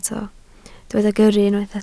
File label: "gyrru", Welsh